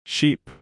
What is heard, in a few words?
"sheep", English